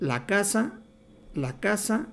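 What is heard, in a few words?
"la caza la casa", Spanish, Mexico City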